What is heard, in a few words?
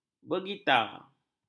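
"bergitar", Malay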